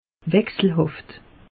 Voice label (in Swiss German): "wechselhaft", alsace; meteo